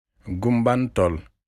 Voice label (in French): Wolof Escargot